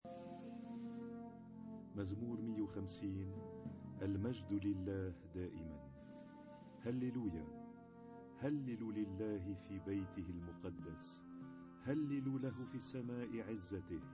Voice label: Arabic Psalm Zaboor